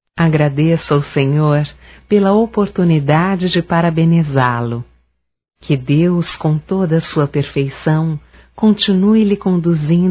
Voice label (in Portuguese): Aniversário Católico Feminino, Telemensagens, Só Voz; Telemensagens 2017